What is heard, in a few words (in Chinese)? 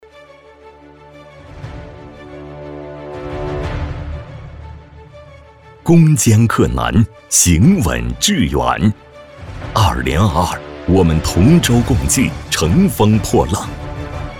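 男【颁奖】; 银行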